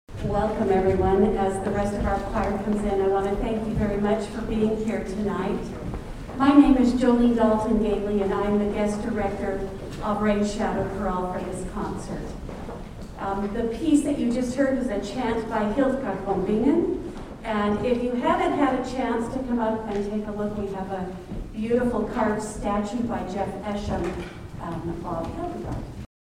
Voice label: Narration